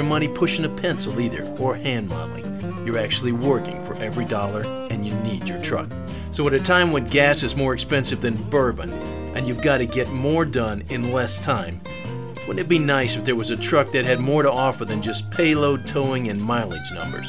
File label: voice with music; demo